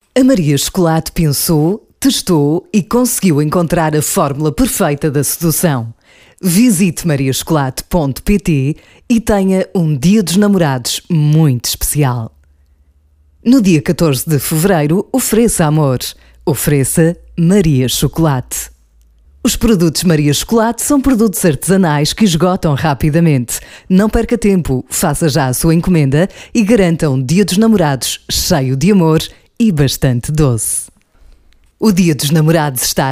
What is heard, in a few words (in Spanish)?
Femenino